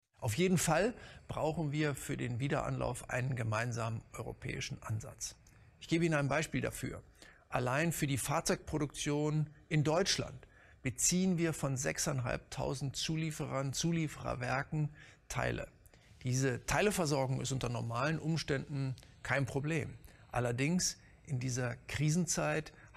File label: voice tape